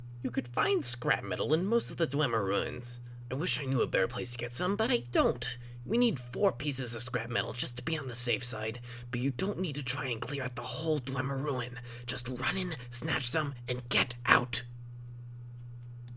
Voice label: bosmer, wood elf voice auditions; fargoth